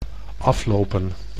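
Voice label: "aflopen", Dutch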